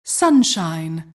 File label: weather alert; Dota 2; announcer custom weather alert